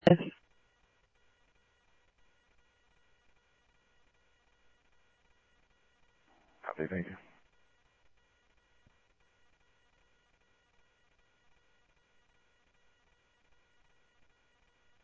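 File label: Mesa police department, scanner audio trunk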